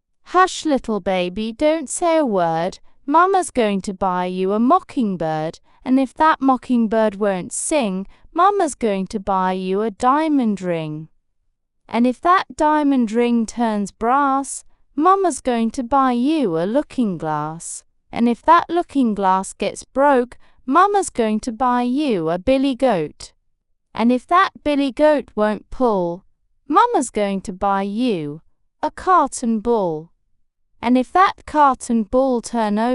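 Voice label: female British; Hush Little Baby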